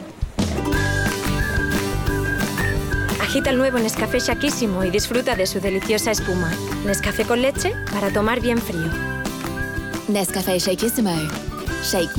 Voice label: urban